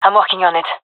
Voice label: defusing, fem; vo; gendarmerie fem epic